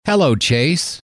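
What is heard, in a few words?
"hello", male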